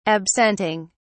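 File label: "absenting", British English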